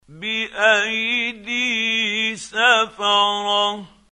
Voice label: quran, Hussary, tafseer; Abasa